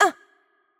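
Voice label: Hurt Light, Polish; pl PL; bloom minigame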